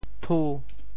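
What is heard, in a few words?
"thu5", Teochew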